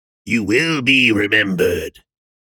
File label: stock line vocal you will be remembered male 30s fantasy; rogue